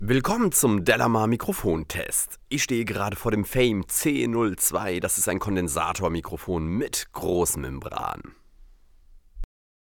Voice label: speech m

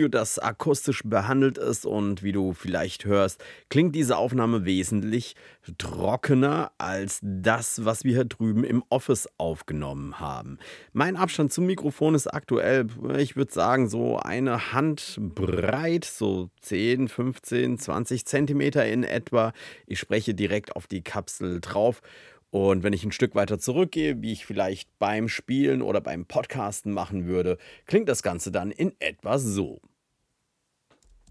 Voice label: elgato wave 3 studio

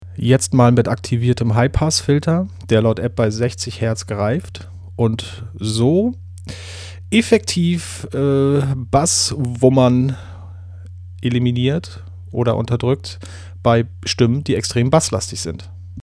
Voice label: usb hi pass; rode podmic hd

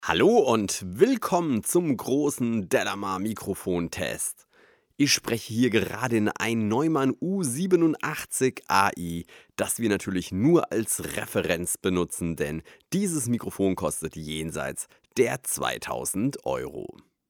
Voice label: e2deesser Voice Over; eiosis

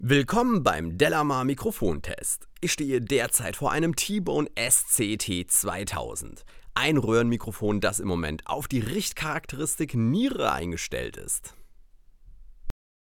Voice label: speech m; thomann sct2000

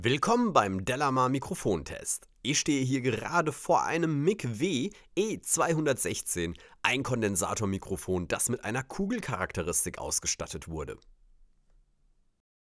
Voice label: speech m mic e216; micw

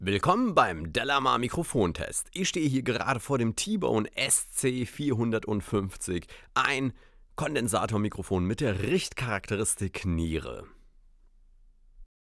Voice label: sc450 speech m; t.bone

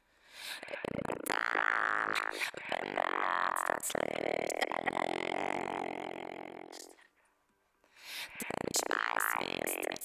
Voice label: tc-helicon voicelive play totally alien

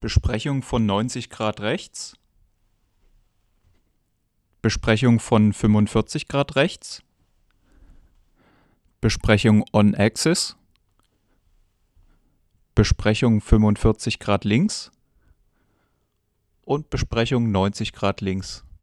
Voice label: shure ksm8 plosive off-axis

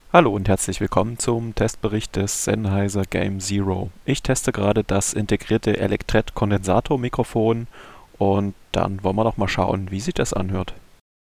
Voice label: sennheiser game zero, Gaming Headset

